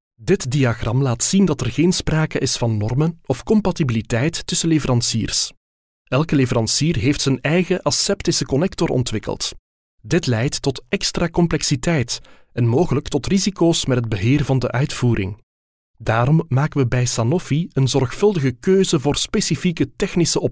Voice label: flemish voiceover locutor flamenco dutch

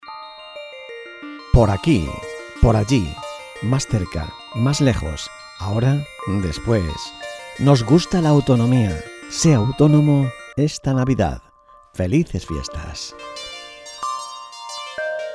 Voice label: mensajes navidad locutores voiceover

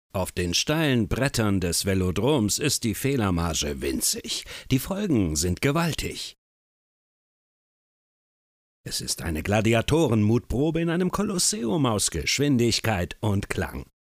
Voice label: locutor alemania german voiceover

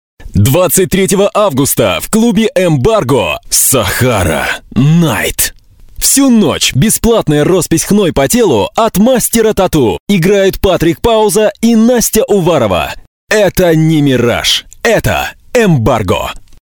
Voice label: locutor ruso russian voiceover

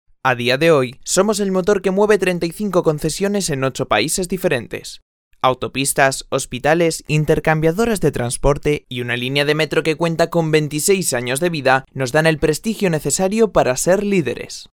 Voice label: locutor spanish voiceover

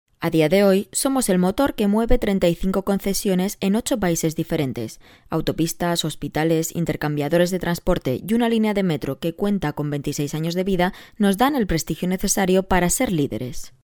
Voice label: locutora spanish voiceover

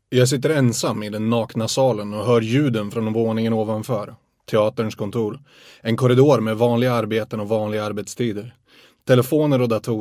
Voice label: locutor sueco swedish voiceover

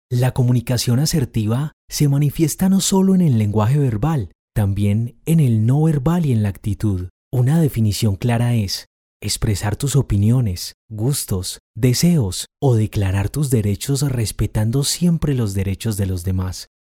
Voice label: locutor colombia colombian voiceover

